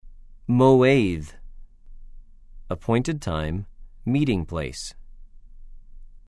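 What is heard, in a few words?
"מוֹעֵד", Hebrew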